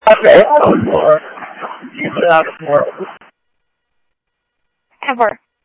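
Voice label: police radio dispatch, Scottsdale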